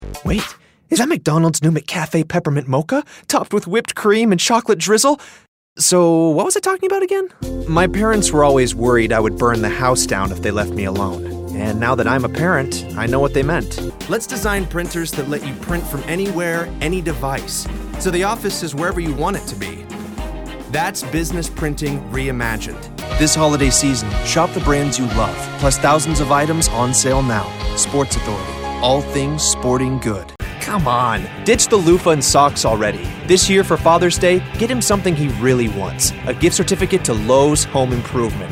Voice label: commercial, men